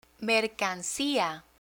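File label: "mercancia", Spanish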